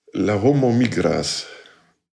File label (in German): deutsch esperanto